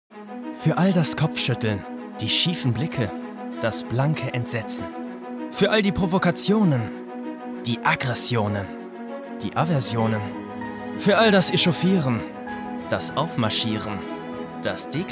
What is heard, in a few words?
commercial off; mercedes-benz